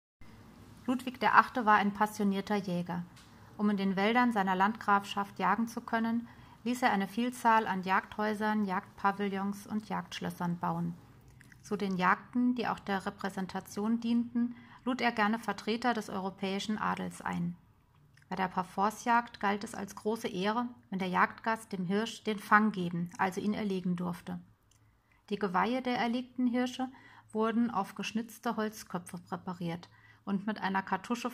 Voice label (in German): Hörspiel; Ludwig VIII.